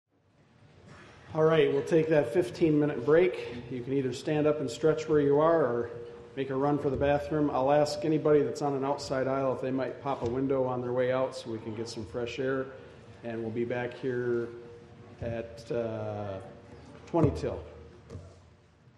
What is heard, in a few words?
Saturday Afternoon Announcements; 2015Conference